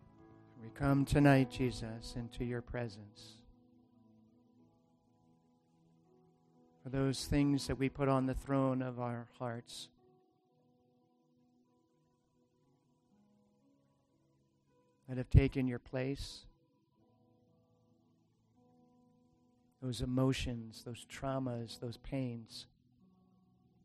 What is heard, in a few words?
meditation; malvern